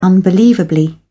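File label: "unbelievably", British English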